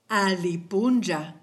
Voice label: good morning, kichwa